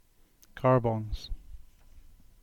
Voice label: "Carbis Bay", Cornish; upper part of settlement; place names